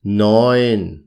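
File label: "nuen", German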